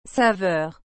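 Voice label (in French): "saveur", French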